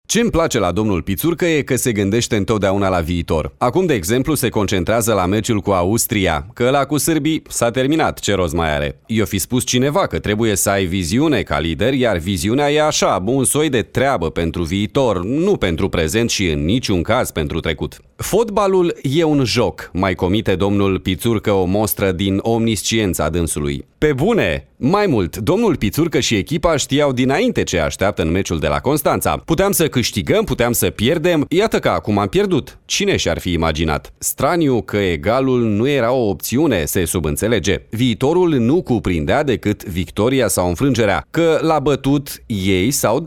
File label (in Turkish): Romence Erkek, erkek sesler; romence seslendirme